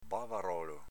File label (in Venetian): "bavarolo", Veneto